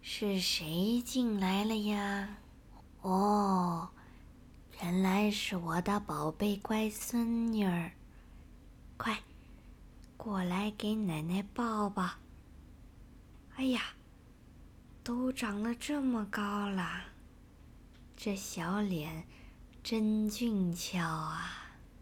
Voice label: 女老年

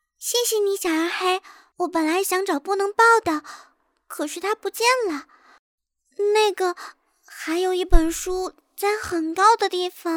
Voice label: 童声 文静 害羞 女童

